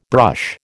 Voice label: "brush", American

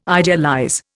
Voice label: "idealize", Indian

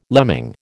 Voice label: "lemming", American

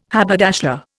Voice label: "haberdasher", Indian

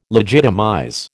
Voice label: "legitimize", American

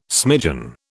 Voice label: "smidgen", British

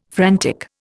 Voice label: "frantic", Indian

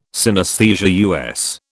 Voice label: "synesthesia", US

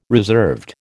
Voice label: "reserved", American

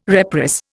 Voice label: "reprice", Indian

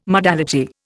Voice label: "modality", Indian

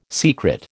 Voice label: "secret", American

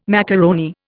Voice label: "macaroni", Indian

